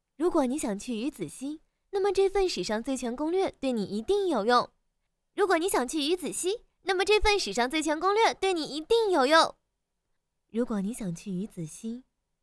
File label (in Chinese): 景区解说